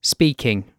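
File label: "speaking", British English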